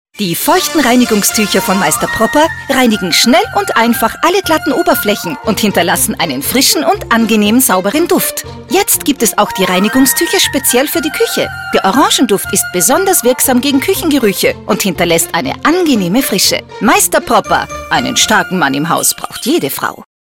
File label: commercial; Mr. Proper